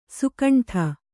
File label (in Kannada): "ಸುಕಂಠ", Kannada